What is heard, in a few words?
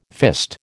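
"fist", American English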